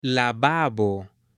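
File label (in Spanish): "lavabo", Spanish, male; MUEBLES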